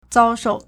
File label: "zao1shou4", Chinese